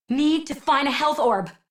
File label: Low Health Operator Voice; Operator Mission